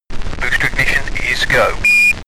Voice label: astronaut chatter